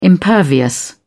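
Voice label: "impervious", English, British English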